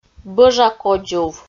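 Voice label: "бӧжа кодзув", Komi, female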